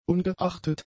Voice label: "ungeachtet", German; adjectives

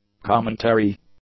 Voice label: "commentary", English; nouns

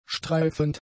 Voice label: "streifend", German; adjectives

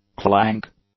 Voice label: "clank", English

